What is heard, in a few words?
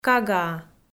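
"кагаа", Komi, female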